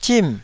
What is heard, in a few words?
"zim4", taiwanese